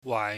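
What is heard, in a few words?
"wai", Cantonese, low rising tone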